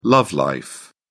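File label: "love life", British English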